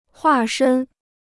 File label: "化身", Chinese